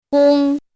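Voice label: "gōng", Mandarin Chinese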